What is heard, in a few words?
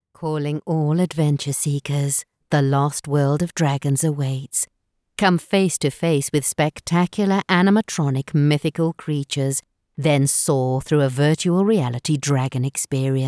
Corporate